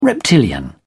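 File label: "reptilian", British English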